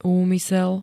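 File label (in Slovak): "úmysel", Slovak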